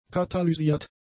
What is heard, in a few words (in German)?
"katalysiert", German; adjectives